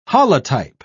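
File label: "holotype", British English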